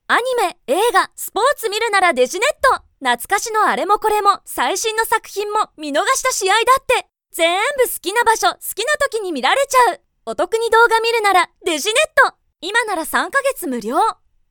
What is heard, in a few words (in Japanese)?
CM, 女性ナレーター